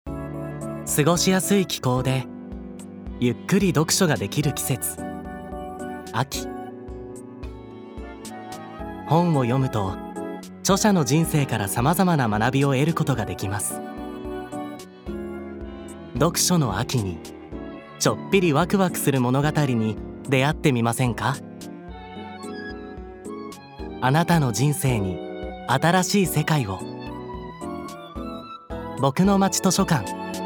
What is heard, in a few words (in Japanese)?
ナレーション